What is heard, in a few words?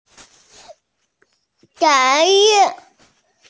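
"zé rèn", Mandarin Chinese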